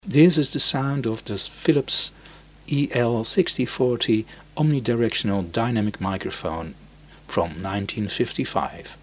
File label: Philips EL 6040 UK